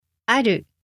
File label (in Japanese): "ある", Japanese